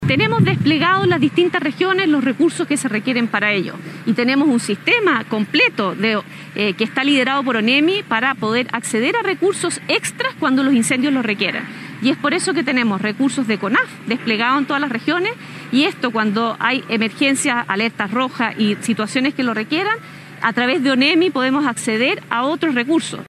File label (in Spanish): CUÑA; UNDURRAGA